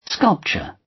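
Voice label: "sculpture", British English; The arts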